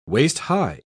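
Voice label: "waist-high", British English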